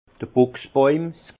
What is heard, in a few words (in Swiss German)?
"buchsbojm", Alsace; vin; buis